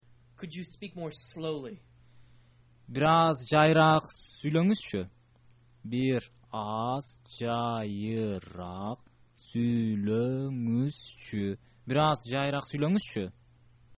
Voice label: could you speak more slowly, kyrgyz